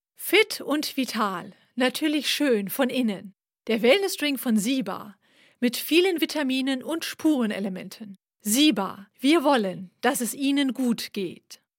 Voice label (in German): Werbung, Sprech